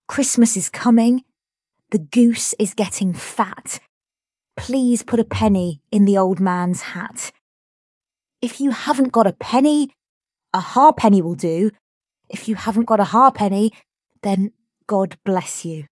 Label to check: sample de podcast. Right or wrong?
Wrong